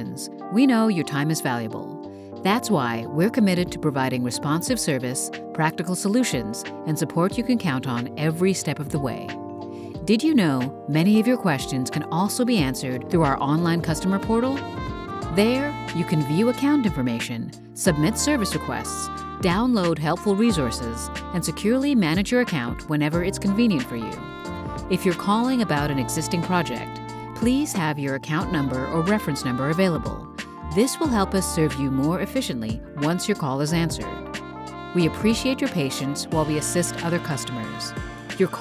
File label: On-Hold Messaging